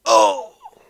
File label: hurt; Knights-Diamond